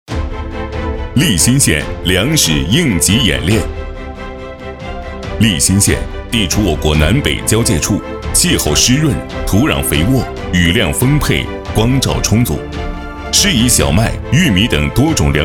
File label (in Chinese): 官宣 粮食应急演练